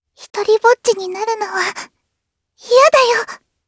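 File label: Vox Skill, Japanese; Lavril; kings raid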